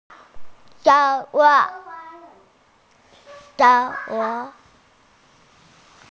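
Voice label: "xue2xiao4", Mandarin Chinese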